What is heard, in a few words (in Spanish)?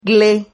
"gle", Silabas